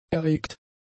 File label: "erregt", German; adjectives